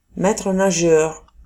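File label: "maitre nageur", French; famille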